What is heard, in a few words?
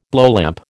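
"blowlamp", American English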